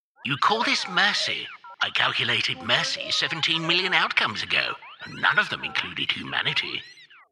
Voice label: Characters Space Droid

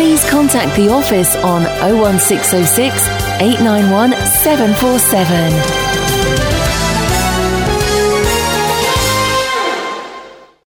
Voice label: Radio Idents

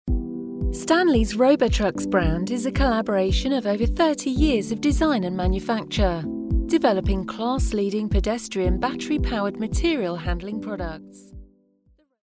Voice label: Female Corporate Video VO

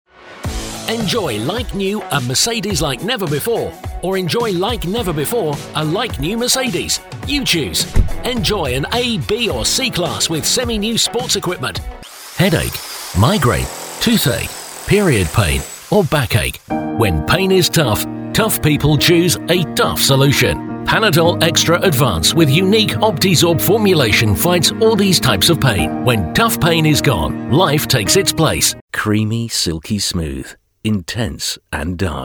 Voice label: COMMERCIALS, voice over

